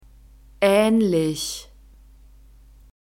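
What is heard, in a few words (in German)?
"ähnlich", German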